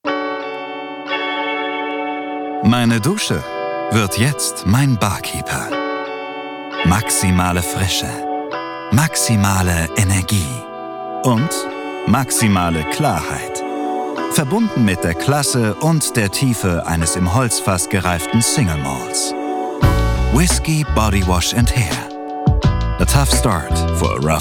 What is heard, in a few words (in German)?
Werbung Whiskey Body Wash; LOFT VOICES